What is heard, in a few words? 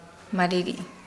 Nukuoro; scattered